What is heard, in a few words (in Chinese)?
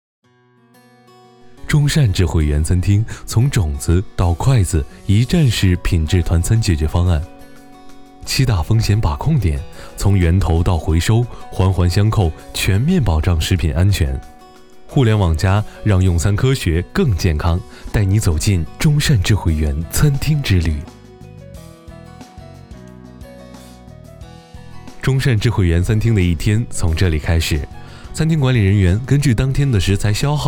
餐厅宣传片; 中山智慧园餐厅